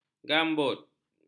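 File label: "gambut", Malay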